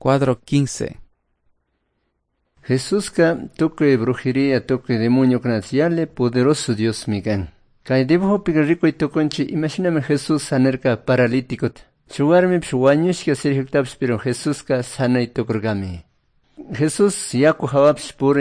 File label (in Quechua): good news quichua cayambe